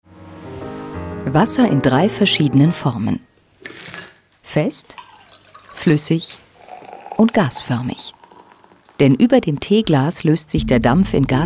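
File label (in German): Kommentar Freundlich; Fremdtake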